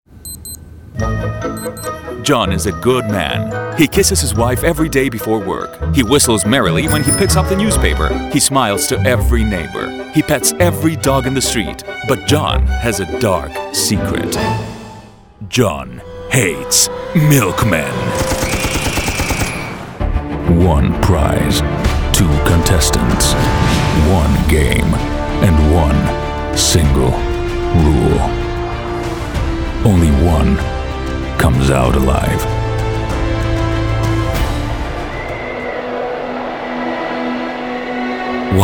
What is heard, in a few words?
Trailers